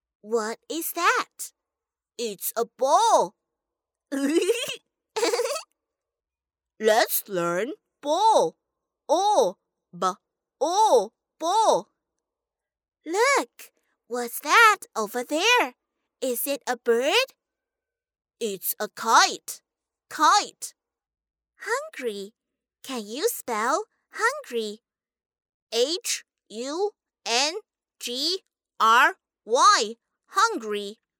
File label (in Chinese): 女 英语课件 男女童